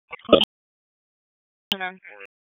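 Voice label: Police District Dispatch; Raleigh; Southeast; p25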